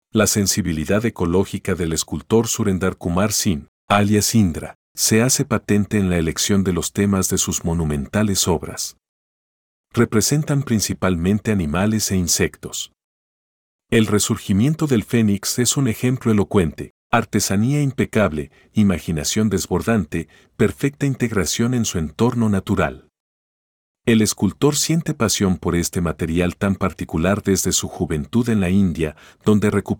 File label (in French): espagnol